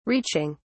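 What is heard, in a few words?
"reaching", British English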